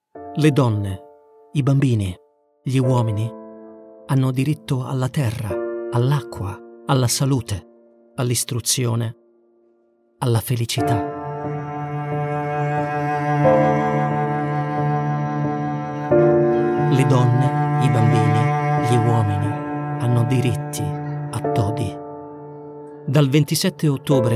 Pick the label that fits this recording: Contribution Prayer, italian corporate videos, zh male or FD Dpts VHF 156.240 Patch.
italian corporate videos